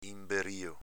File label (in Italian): "inberio", dialetto veneto